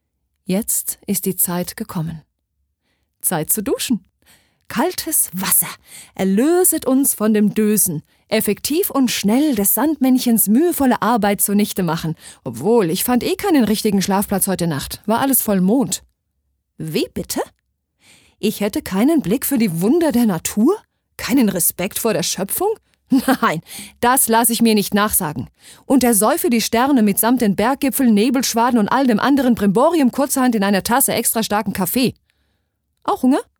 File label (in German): Schauspiel, Variabel